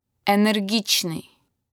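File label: "energetic", Russian vocabulary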